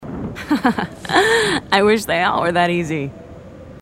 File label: female marines